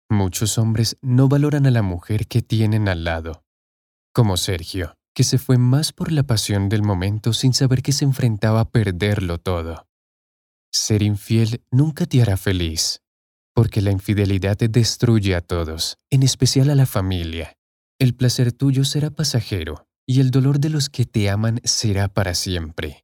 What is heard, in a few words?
Spanish (LA), Male